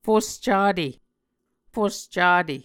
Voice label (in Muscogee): "fuscate", Muscogee